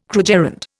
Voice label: "krugerrand", Indian

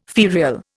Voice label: "ferial", Indian

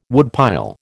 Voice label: "woodpile", American

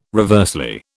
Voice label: "reversely", British

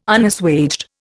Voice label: "Unassuaged", Indian

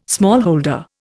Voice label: "smallholder", Indian

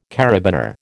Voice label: "karabiner", American

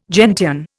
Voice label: "gentian", Indian

